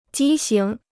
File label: "畸形", Chinese